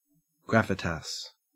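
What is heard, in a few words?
"gravitas", Australian English; pronunciations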